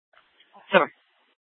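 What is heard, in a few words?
Police Department North Dispatch, scanner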